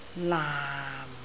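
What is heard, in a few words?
"laam4", Cantonese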